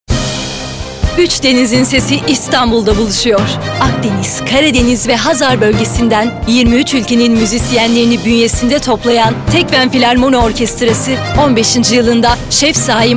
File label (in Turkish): reklam; tekfen filarmoni